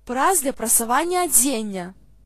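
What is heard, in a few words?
clothes iron desc, Belarusian; household; stamps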